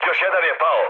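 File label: Serbian player killed enemy mood med, speech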